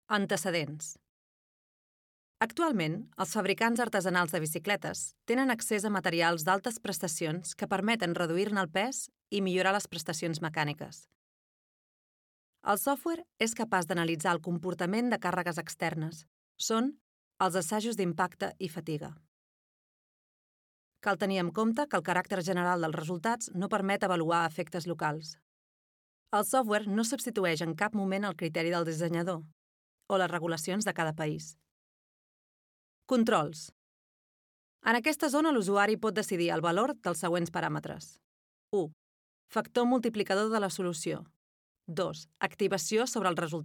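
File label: CA Corporate, Catalan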